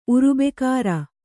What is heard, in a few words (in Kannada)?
"ಉರುಬೆಕಾರ", Kannada